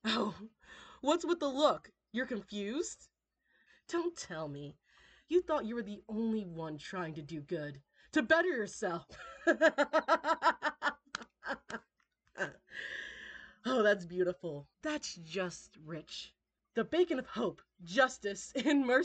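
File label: Villain Speech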